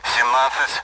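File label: Speech Sound Russian Common AWACS Numbers; DCS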